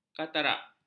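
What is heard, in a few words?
"katarak", Malay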